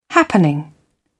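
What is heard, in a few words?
"happening", British English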